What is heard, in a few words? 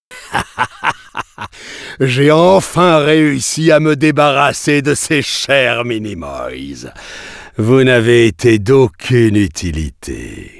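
outro fail French; arthur and the revenge of maltazard; Wii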